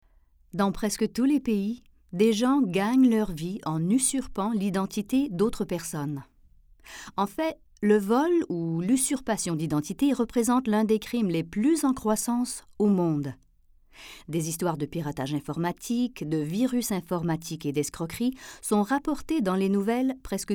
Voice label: French, VO; OKTA

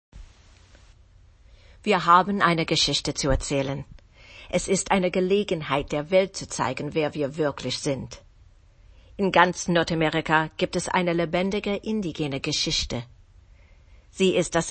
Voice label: German; OKTA German Selects

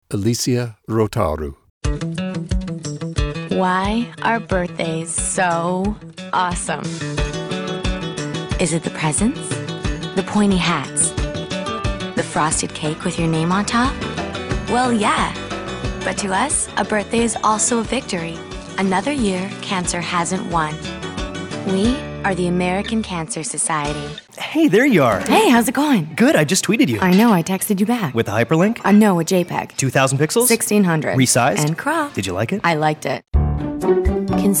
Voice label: Commercial Women, female VO; redmanagement

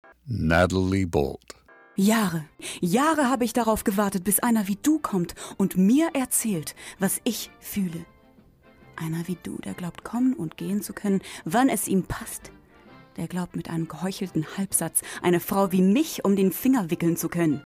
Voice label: German, VO; OKTA

